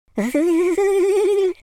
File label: scared, Teenage Angst; speech